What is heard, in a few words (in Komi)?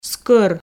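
"скӧр", Komi, female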